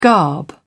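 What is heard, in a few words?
"garb", English, British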